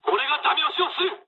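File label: Japanese player killed enemy mood low; War Thunder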